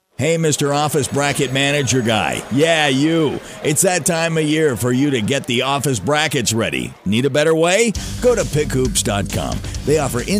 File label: radio spot